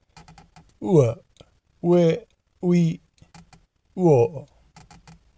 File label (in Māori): Ua ue uo ui, te reo maori